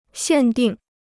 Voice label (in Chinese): "限定", Chinese